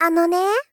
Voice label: Gentle Call "Ano ne"

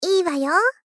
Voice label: Gentle Accept "Ii wayo"; Rei Yumesaki

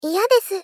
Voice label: Polite Dislike Iya desu

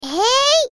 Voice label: Atack Voice

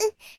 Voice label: Damage Voice

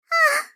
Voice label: Gentle Despair "Oh"

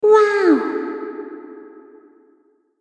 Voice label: Gag wao sexy

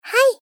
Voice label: Polite Agree Hai

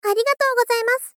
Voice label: Polite Thanks Arigato gozaimasu

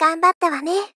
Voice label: Gentle "Ganbatta wane"

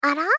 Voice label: Gentle Perceive "Ara"

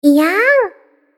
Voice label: Gag iyaan sexy Reverb lightly

